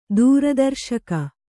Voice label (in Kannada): "ದೂರದರ್ಶಕ", Kannada